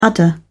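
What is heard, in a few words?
"udder", British English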